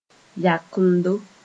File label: "yakemdo", Moore